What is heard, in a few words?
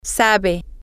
you know how, spanish, F